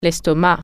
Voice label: "stomach", French